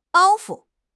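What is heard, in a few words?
"包袱", Chinese; HSK6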